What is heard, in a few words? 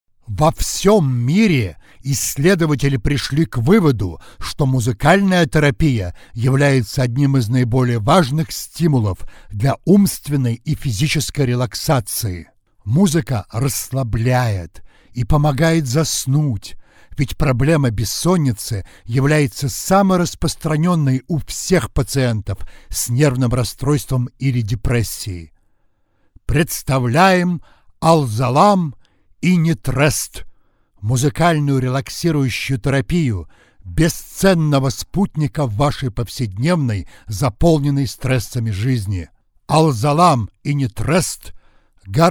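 russian male voice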